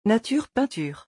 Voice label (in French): "nature peinture" French